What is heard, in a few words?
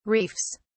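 "reefs", English, British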